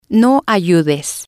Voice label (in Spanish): "no Ayudes", spanish